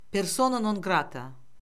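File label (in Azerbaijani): "persona non qrata", Azerbaijani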